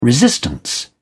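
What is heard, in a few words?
"resistance", British English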